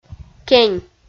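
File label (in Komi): "кень", Komi, female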